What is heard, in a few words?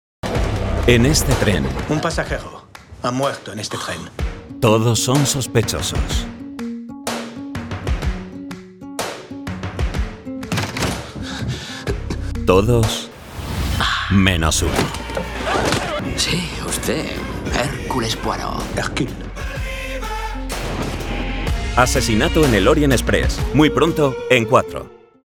spanish tv shows